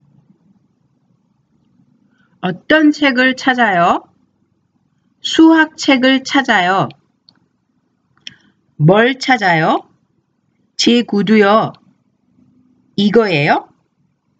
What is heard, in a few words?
conversation; K-Pop Volume 3